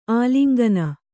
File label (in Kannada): "ಆಲಿಂಗನ", Kannada